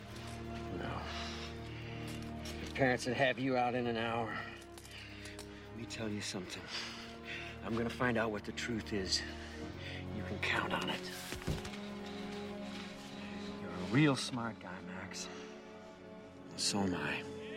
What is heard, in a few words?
english